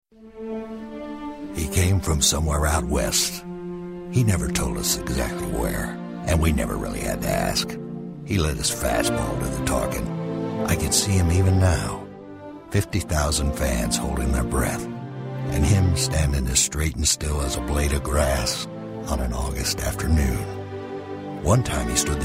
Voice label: Commercial